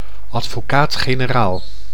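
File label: "advocaat-generaal", Dutch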